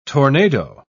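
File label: "tornado", English word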